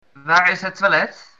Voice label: toilet, dutch